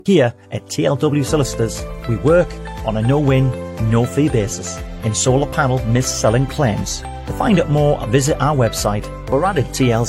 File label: Voice Over Reel